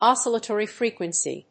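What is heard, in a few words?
"oscillatory frequency", English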